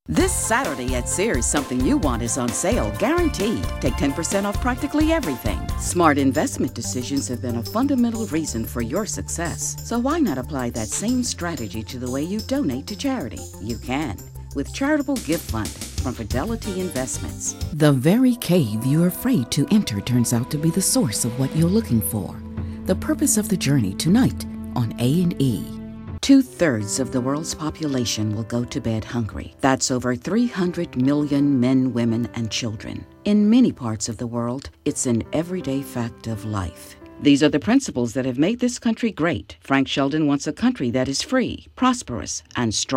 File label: Commercials